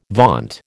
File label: "vaunt", American